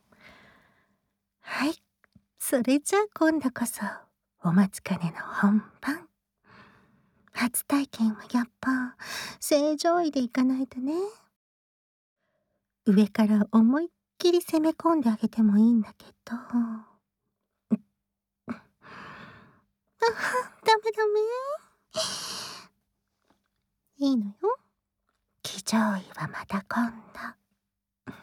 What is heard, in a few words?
LOVE Whisper